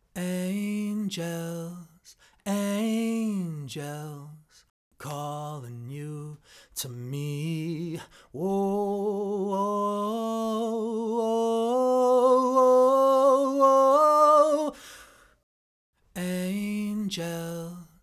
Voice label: MALE VOX MONO